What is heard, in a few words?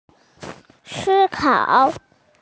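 "si1kao3", Mandarin Chinese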